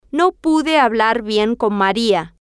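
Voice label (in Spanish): no puede hablar bien con Maria, Spanish, female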